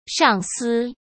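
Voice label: "上司", Mandarin Chinese; hsk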